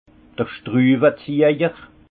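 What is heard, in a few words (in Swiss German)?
"schtruwezejer", Alsace Haut Rhin; vin; tournevis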